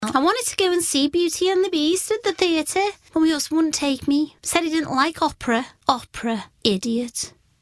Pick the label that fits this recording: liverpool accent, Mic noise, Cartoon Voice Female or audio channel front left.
liverpool accent